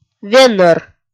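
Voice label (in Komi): "венӧр", Komi, female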